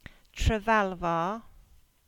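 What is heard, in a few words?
"Alverton", Cornish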